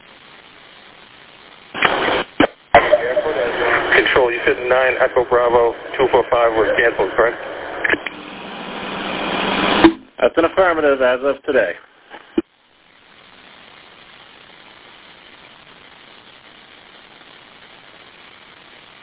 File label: Police Radio